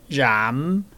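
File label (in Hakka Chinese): "rham3", 客語 海陸腔; 閹; 鹽; 膁; 厭; 燄; •部分地區讀為rham。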